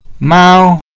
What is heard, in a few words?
"mao1", Mandarin, first tone